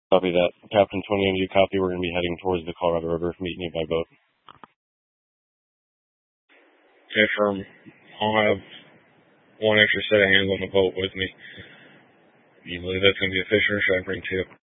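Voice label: Incident Tac 1, Scanner audio recording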